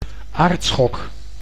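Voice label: "aardschok", Dutch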